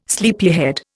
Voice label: "sleepyhead", Indian

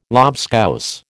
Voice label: "lobscouse", American

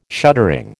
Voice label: "shuttering", American

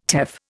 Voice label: "teff", Indian

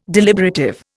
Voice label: "deliberative", Indian

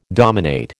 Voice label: "dominate", American

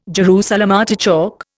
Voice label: "Jerusalem artichoke", Indian

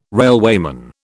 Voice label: "railwayman", British

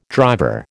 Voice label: "driver", American

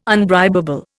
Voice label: "unbribable", Indian

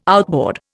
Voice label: "outboard", Indian

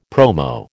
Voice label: "promo", American

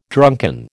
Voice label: "drunken", American

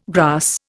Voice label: "grass", Indian

